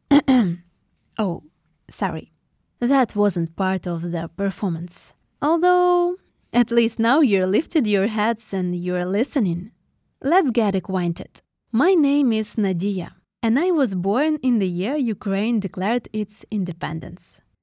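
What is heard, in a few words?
female voice, English accent, Ukrainian; voice demos; GoLocalise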